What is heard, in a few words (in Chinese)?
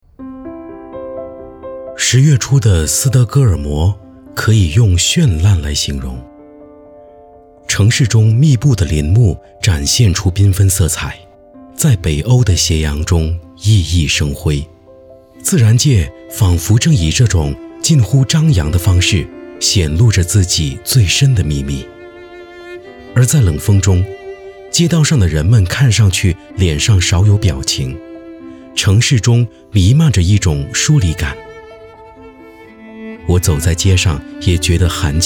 朗诵, 柔情; 诺贝尔奖的魅力